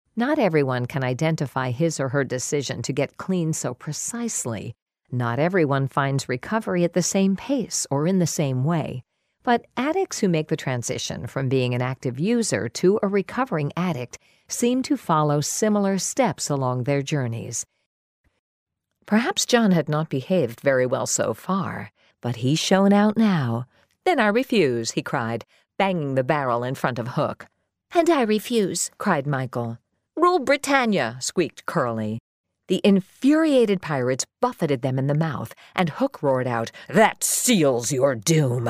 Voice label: english american audiobooks